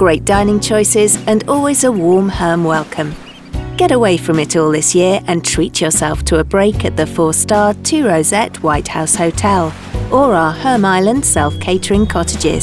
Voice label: On Hold Message; Herm Island